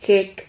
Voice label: "jec", Silabas

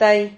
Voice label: "tay", Silabas

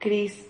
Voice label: "cris", Silabas

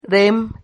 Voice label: "rem", Silabas

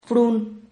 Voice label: "frun", Silabas

